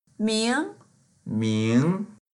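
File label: "ming2", Mandarin Chinese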